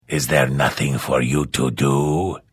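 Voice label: Hello, Vo; Morrowind GOTY GOG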